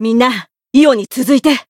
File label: Voice limitbreak jp